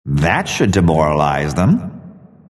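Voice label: announcer barracks fall enemy follow up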